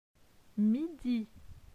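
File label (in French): "Midi", French; phonetique; les consonnes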